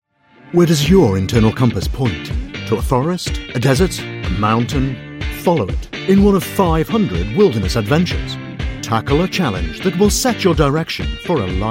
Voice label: voiceover adventure company promo

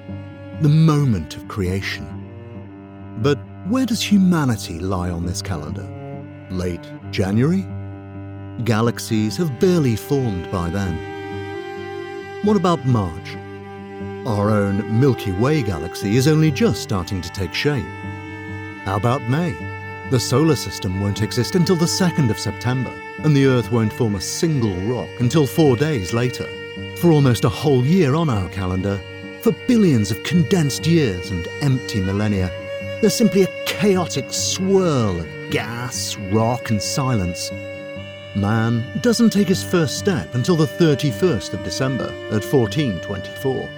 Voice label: voiceover narrative documentary the universe

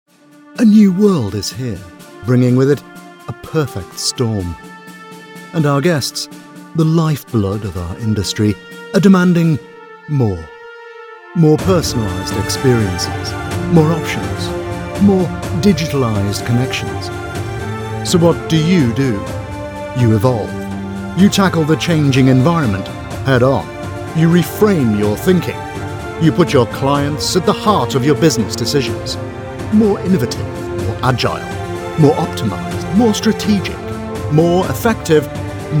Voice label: voiceover medical conference opener

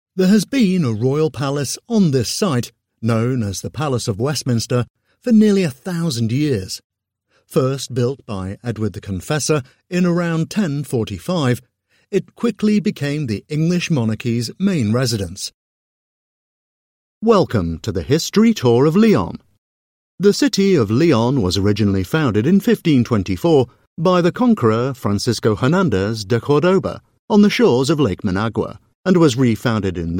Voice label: voiceover audioguide; British

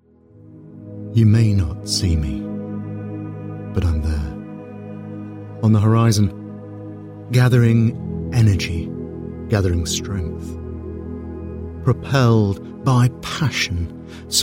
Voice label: voiceover commercial; British

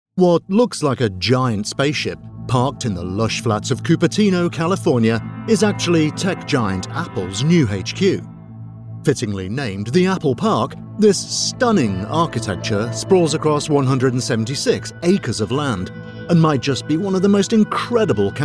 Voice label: voiceover narrative documentary; apple hq

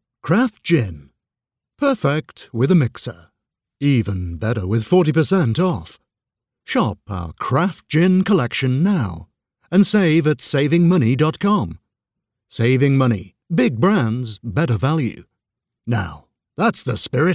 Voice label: voiceover commercial short advert craft gin

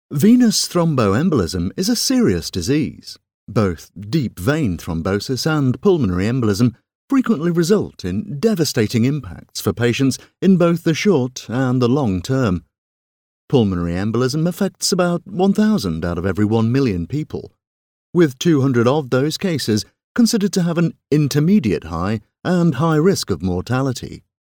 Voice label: voiceover medical venous thrombo embolism

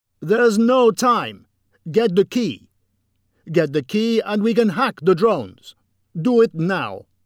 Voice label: character game russian soldier

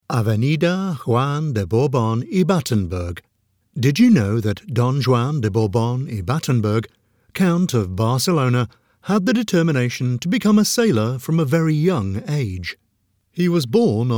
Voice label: voiceover audioguide spanish street names

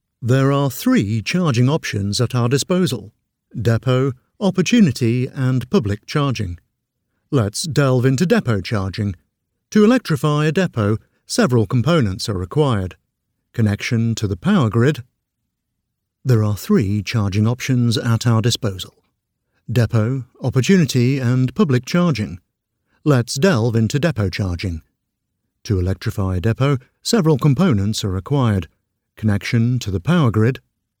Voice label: voiceover narrative explainer

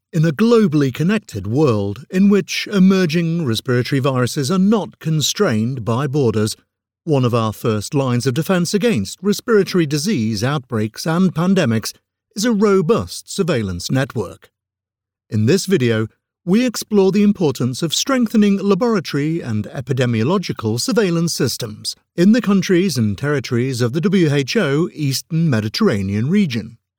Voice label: voiceover medical who respiratory surveilance

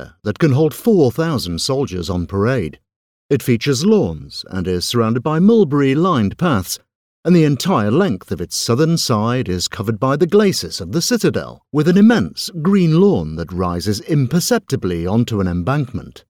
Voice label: voiceover narrative audioguide ancient city walks

